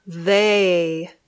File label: "they", English